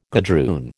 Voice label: "gadroon", American